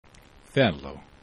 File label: "felo", esperanto